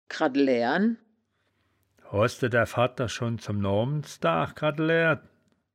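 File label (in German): "grade laeaeren", wittlicher woerterbuch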